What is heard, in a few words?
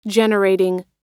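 "generating", American English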